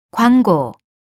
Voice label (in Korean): 광고; TOPIK